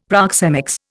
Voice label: "proxemics", Indian; Dictionary

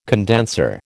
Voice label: "condenser", American

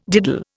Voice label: "diddle", Indian

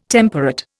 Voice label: "temperate", Indian

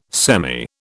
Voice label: "semi", British

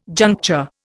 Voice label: "juncture", Indian

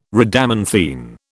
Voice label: "Rhadamanthine", British

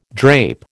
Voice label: "drape", American

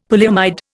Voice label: "polyamide", Indian; Dictionary

